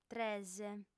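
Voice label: "tres", Sardinian; fem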